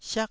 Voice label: "siak4", taiwanese Syllables